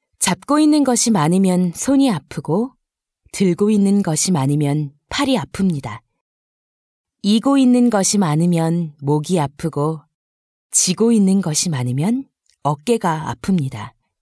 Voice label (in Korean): 라디오 NA 에세이 NA